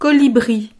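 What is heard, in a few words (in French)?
"colibri", French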